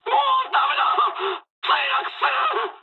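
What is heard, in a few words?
aircraft destroyed mood med, speech japanese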